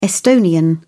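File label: "estonian", British English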